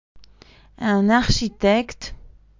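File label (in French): "un architecte", French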